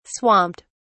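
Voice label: "swamped", British English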